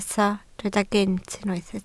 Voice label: "gynt", Welsh

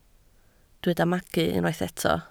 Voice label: "magu", Welsh

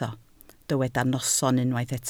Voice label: "noson" Welsh

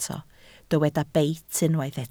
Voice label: "beit" Welsh

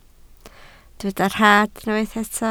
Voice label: "rhad", Welsh

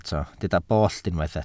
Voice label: "bollt", Welsh

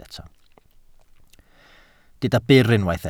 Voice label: "byr", Welsh

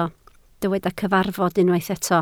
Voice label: "cyfarfod", Welsh

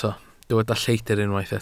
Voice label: "lleidr" Welsh

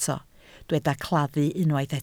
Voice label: "claddu" Welsh

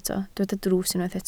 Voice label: "drws", Welsh

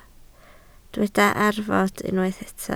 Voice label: "arfod", Welsh

